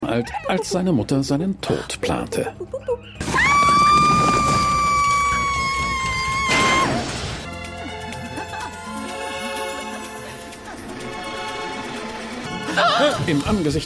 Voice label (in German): Junge Laute Frau Laute Mann Laute; Calista; Pushing Daisies